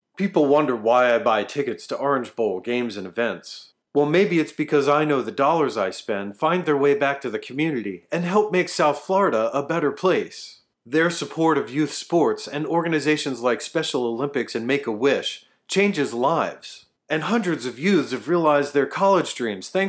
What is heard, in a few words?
Radio Spot Mixed; Orange Bowl